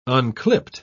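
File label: "unclipped", British English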